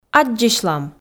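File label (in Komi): "аддзысьлам", Komi, female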